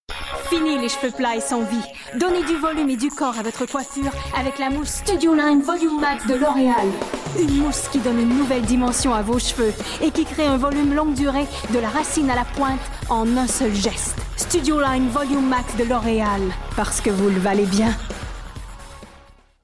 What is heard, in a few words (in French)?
promotionnel fr normatif qc naturel affirmee determinee energique; loreal; jeune adulte